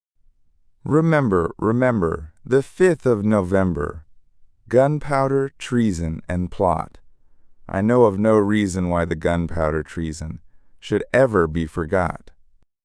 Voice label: male US, classic rhymes; Remember Remember